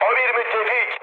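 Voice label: Turkish player friendly fire, mood med, speech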